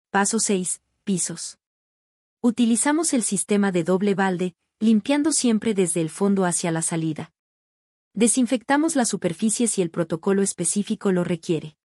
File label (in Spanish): locucion